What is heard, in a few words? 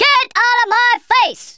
leave me alone, Drill Sergeant; Worms Armageddon